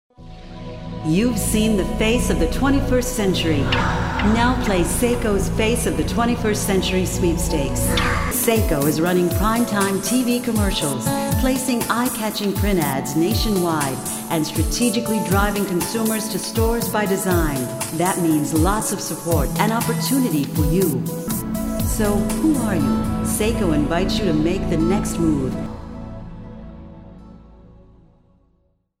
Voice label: Narration